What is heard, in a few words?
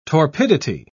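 "torpidity", English, British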